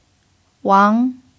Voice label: "wang2", chinese